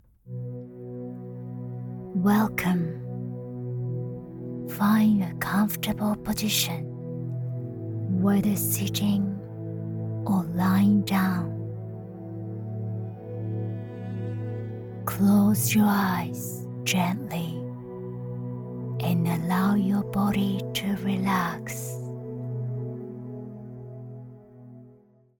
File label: Meditation English